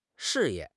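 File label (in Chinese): "事业", Chinese; HSK6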